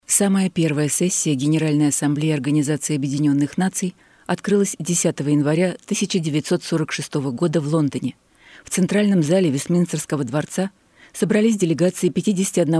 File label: narration; Charter